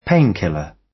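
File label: "painkiller", British English; Time, history; Thời gian, lịch sử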